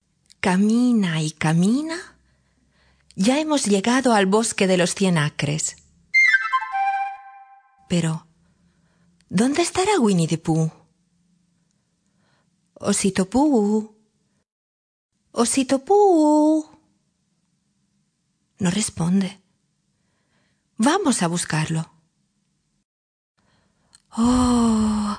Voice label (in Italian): spagnolo normale